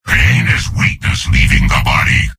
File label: soldier jeers; source engine; tf; mvm